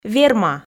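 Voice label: "верма", Komi, female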